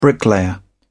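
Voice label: "bricklayer", English, British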